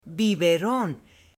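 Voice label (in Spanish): "biberon", Spanish, female; UTENSILIOS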